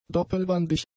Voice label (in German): "doppelwandig", German; adjectives